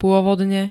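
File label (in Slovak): "pôvodne", Slovak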